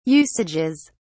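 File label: "usages", British English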